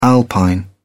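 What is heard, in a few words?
"alpine", British English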